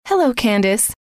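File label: hello, female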